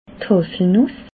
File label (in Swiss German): "haselnuss", alsace